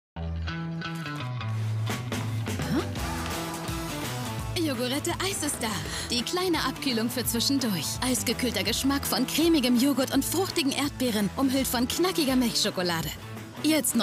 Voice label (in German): TV Spot; Yogurette Eis